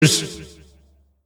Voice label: gamemode deathmatch, announcer; tf2cciv; open fortress